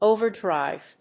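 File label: "overdrive", English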